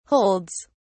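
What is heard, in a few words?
"holds", British English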